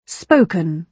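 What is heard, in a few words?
"spoken", British English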